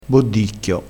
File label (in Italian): "boddicchio", crespinese